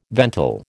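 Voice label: "ventil", American English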